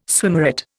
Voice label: "swimmeret", Indian

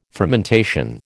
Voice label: "fermentation", American

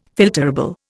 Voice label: "filterable", Indian

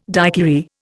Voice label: "daiquiri", Indian

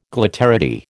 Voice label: "glitterati", American

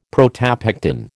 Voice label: "protopectin", American

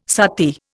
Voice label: "sati", Indian

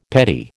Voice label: "petty", American

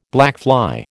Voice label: "blackfly", American

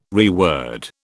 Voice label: "reword", British

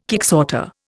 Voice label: "kicksorter", Indian

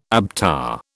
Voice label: "ABTA", British

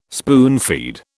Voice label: "spoonfeed", British

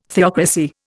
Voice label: "theocracy", Indian

